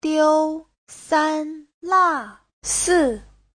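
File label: "diū sān là sì", Mandarin Chinese; 丢三落四; quên trước quên sau, hay quên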